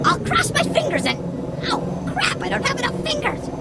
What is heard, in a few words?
grunt; h3dialogue; staten